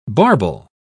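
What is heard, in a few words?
"barbel", British English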